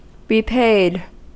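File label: "repaid", US English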